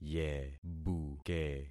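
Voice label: "yebuke", concatenated syllables; Affixing experiment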